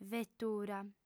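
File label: "vetura", Sardinian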